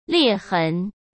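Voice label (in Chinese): "裂痕", Mandarin; chinese-flashcards; hsk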